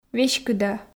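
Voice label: "веськыда", Komi, female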